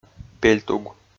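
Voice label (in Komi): "пельтуг", Komi, male; audio dict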